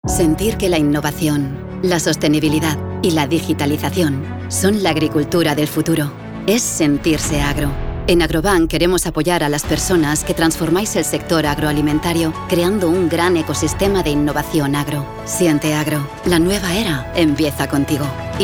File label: female spanish castilian video; explainer; agrobank